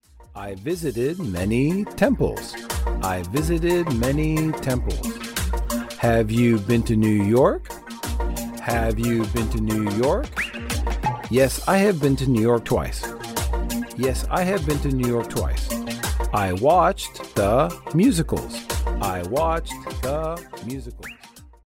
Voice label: ESL